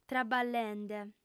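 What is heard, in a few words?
"traballende", Sardinian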